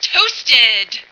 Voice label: "toasted", female